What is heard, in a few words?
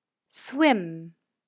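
"swim", English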